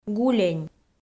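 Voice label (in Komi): "гулень", Komi, female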